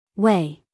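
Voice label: "way", American English, female; everyone-can-use-english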